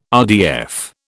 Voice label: "RDF", British

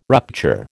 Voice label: "rupture", American

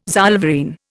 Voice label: "zollverein", Indian

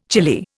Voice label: "chilly", Indian

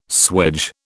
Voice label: "swage", British

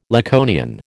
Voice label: "Laconian", American

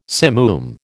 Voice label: "simoom", American

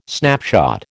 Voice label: "snapshot", American

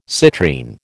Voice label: "citrine", American

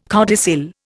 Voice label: "codicil", Indian

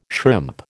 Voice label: "shrimp", American

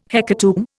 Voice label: "hecatomb", Indian

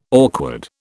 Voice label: "awkward", British

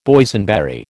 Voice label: "Boysenberry", American

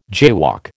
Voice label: "jaywalk", American

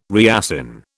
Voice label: "reassign", British

